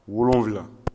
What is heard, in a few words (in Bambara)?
"worofila", Bambara